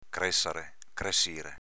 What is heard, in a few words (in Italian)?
"cressare", dialetto veneto; créssare, cressìre